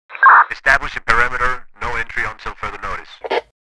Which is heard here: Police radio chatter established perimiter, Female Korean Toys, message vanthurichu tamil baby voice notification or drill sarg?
Police radio chatter established perimiter